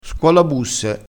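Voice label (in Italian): "scuolabusse", dialettale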